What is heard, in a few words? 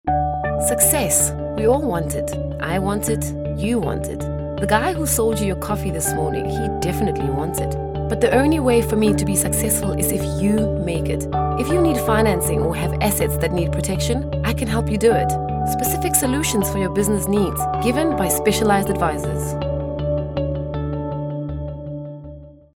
Corporate